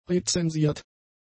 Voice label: "rezensiert", German; adjectives